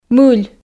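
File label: "мыль", Komi, female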